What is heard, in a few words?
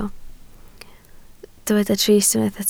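"trist" Welsh, spoken word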